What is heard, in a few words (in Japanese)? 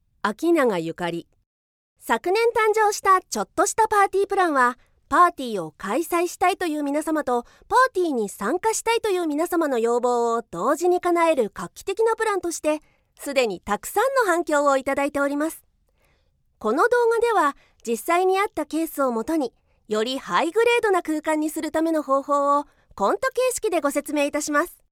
企業研修VTR・華やか